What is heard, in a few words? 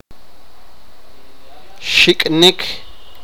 sipakapense; fly v